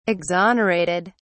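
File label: "exonerated", British English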